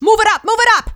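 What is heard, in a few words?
move up, female scout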